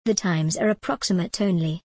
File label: en gb the times are approximate only